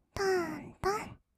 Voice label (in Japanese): "とんとん", kawaii voice; 1200 kawaii voice!!; 色々なワンショット